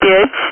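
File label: Speech, Russian, air traffic control; Digits; DCS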